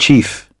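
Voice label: "chief", British English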